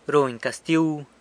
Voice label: "runy castiu", San Lucas Quiaviní; punishes